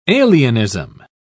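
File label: "alienism", British English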